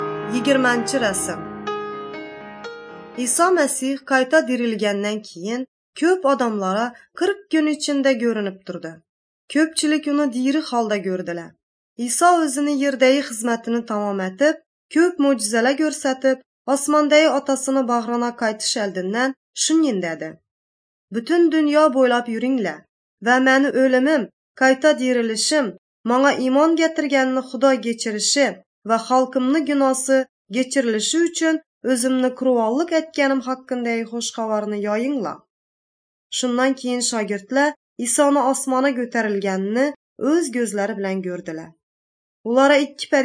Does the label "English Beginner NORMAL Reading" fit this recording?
No